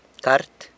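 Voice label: "cart", letter, San Lucas Quiaviní Zapotec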